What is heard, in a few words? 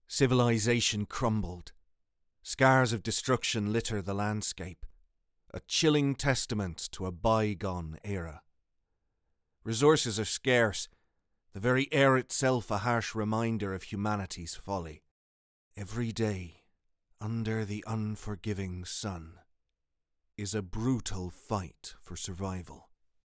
Irish